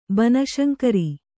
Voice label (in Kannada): "ಬನಶಂಕರಿ", Kannada